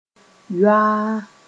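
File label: "yoaa", Mossi; completely soaked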